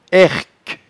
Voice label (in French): "herque", patois jura